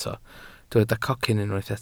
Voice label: "cocyn", welsh